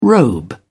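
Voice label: "robe", British English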